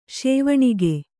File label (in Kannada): "ಸೋವನಿಗ", Kannada